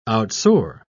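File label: "outsoar", British English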